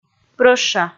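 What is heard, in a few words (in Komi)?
"прӧща", Komi, female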